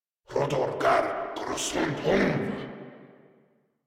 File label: Attack, Grineer Male